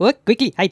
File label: "take cover", Speech; Worms Armageddon; The Raj